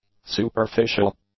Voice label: "superficial", English; adjectives